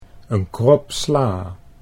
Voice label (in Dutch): "kropsla", Dutch